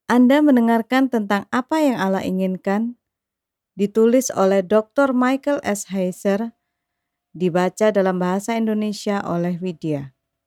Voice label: indonesian